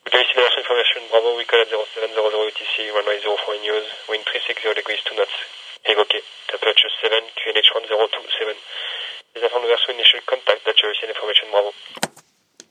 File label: ATIS; LFLG; Eurocontrol Guide for Phraseology for General Aviation Pilots; ATIS LFLG-B